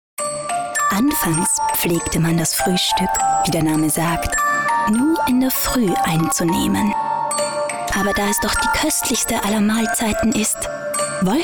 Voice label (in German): Frau OFF